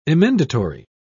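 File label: "emendatory", British English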